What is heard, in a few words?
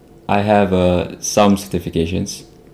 interviewee male